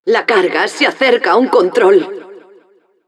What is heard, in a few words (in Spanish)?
Announcer cart attacker warning, es